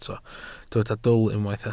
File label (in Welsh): "dwl", Welsh